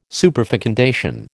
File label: "superfecundation", American